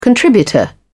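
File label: "contributor", British English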